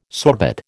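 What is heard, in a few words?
"sorbet", American English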